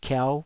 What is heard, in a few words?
"kiau5", taiwanese syllables